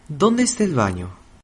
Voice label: "toilet", Spanish